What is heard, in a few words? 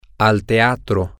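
theater, Spanish, male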